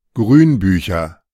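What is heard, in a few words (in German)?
"Grünbücher", German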